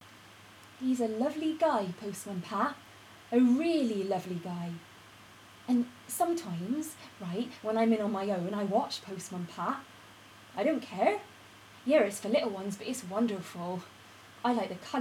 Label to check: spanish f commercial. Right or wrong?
Wrong